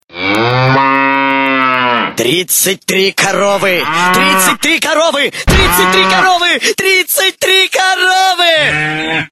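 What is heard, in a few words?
33 cows! 33 cooows!!! (frightened city dweller)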